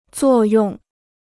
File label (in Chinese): "作用", Chinese